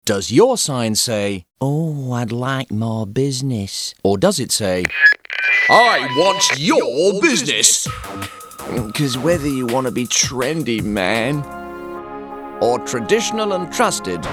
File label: Commercial; Daw Signs